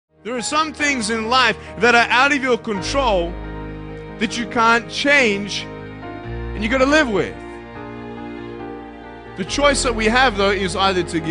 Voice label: Best Motivation Video Speech Powerful Motivational Words; Keep On Going; Nick Vujicic